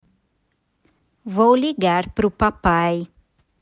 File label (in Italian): Portoghese